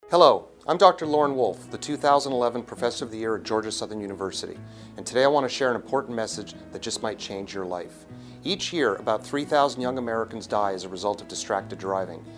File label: announcements